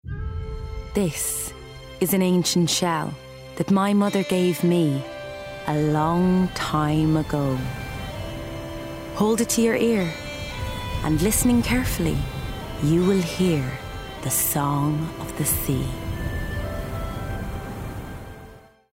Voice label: Animation; Song Of The Sea